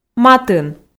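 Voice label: "матын", Komi, female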